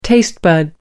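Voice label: "taste bud", British English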